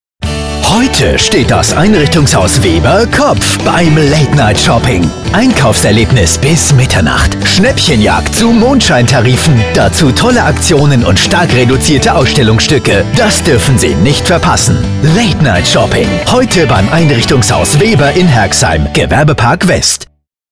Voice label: radiowerbung; Einrichtungshaus Weber Late Night; late night shopping

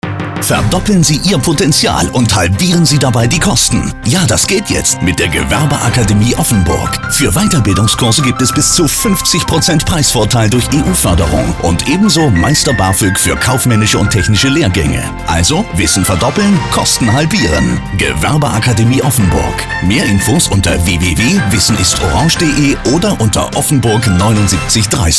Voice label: Radiospot Beispiele Bildung & Weiterbildung, Motivierend, zukunftsorientiert; Gewerbeakademie Weiterbildungskurse

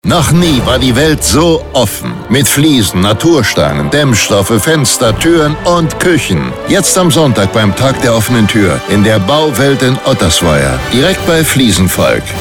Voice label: Radiospot Beispiele Bau & Projekte, Stark, vertrauenswürdig, klar; Bauwelt Tag do Tuer

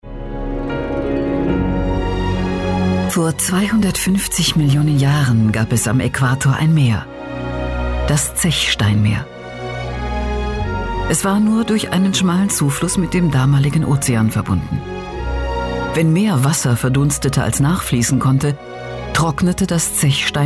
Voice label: weibliche sprecherin fuer voice over

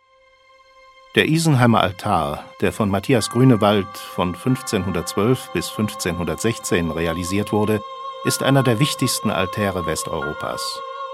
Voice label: Imagefilm; warme, freundliche Stimme

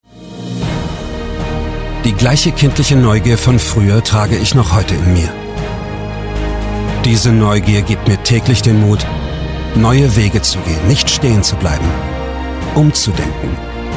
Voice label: Imagefilm

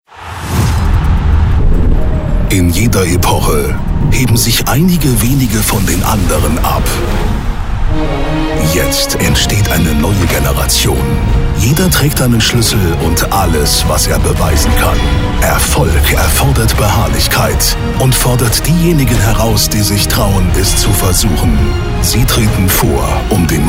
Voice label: Deutsch, tiefe maennerstimme sprecher

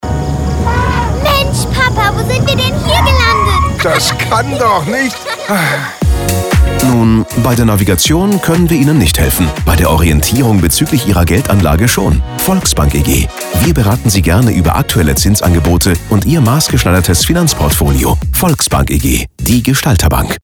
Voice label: Radiospot Beispiele Banken & Finanzen, Kompetent, stabil, seriös, Musik; Volksbank Orientierung

